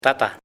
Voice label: "father", "padre", Nasa Yuwe; paez

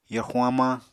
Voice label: "yorxuñ hamañ", Apatani

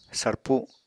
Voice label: "sarpu", Apatani

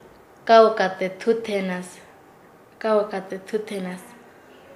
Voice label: "gobernador del cauca", Nasa Yuwe; paez

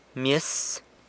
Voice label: "myes", San Lucas Quiavini; mass

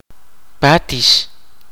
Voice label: "duck", kaqchikel

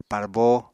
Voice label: "parbo", apatani

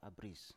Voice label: "abris" Biak

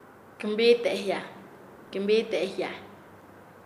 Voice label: Nasa Yuwe; pintar; paez

